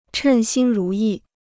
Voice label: "称心如意", Chinese

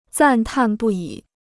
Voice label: "赞叹不已", Chinese

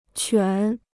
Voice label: "犬", Chinese

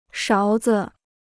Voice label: "勺子", Chinese

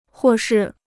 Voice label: "或是", Chinese

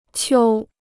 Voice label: "秋", Chinese

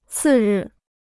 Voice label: "次日", Chinese

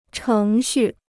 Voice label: "程序", Chinese

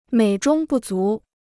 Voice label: "美中不足", Chinese

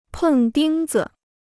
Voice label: "碰钉子", Chinese; pèng dīng zi

